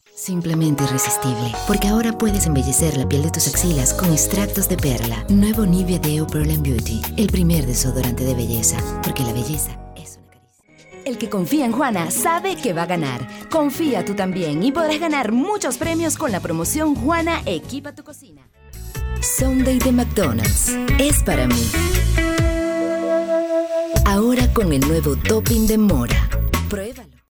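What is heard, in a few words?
Spanish Latin America female sales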